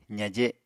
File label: "zaje", Apatani